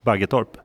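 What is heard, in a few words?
"Baggetorp", Swedish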